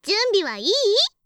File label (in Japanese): 準備はいーい?, 掛け声